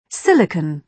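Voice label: "silicon", British English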